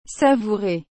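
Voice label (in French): "savourer", French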